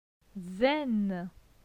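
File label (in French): "Zen", French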